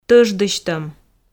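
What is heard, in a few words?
"тӧждысьтӧм", Komi, female; audio dict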